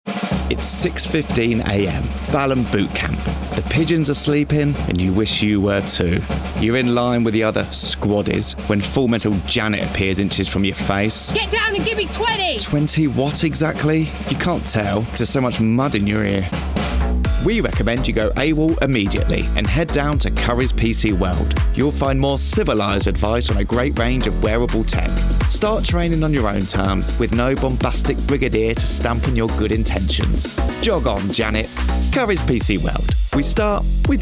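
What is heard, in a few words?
Commercial Reel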